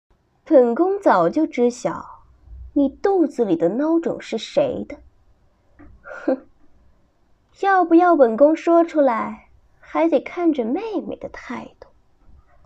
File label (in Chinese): 日常戏感练习阴狠